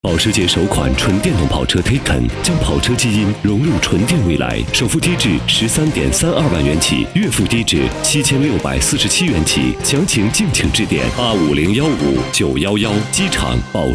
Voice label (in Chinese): 男 品质广告; 保时捷